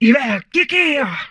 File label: humanoid female; Stellaris; humanoids